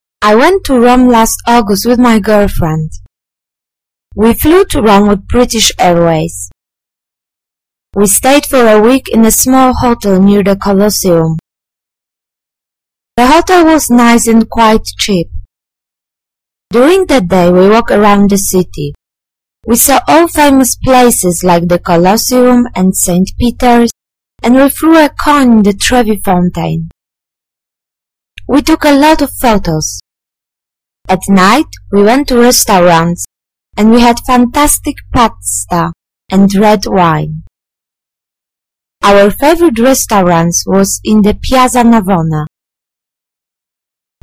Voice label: reading